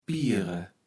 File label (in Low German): "piere", kaevels platt